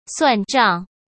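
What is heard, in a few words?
"算账", Mandarin Chinese; hsk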